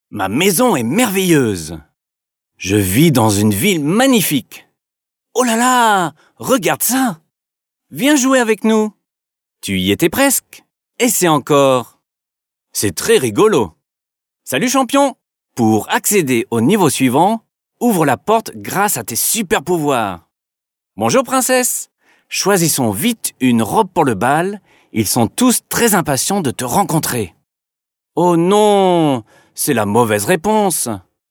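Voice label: French male children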